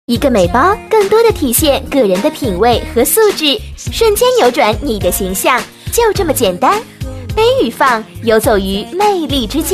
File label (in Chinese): 广告 促销 美包 宣传 叫卖 甜美, 女国